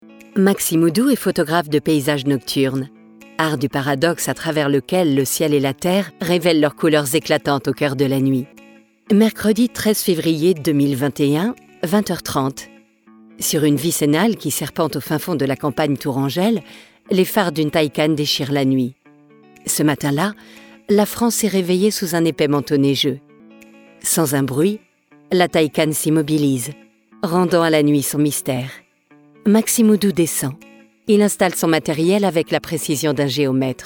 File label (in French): institutionnel; taycan